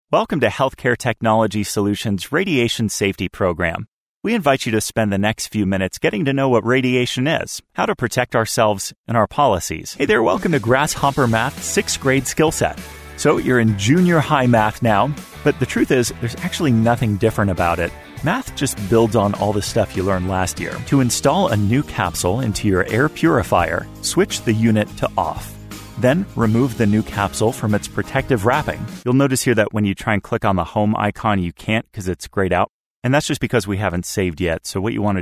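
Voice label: elearning, male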